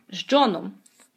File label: dialogue, Ukrainian